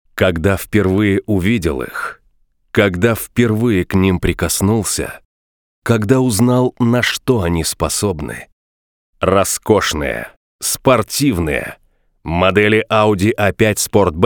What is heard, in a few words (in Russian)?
РАДИО РЕКЛАМА